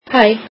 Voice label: icelandic hi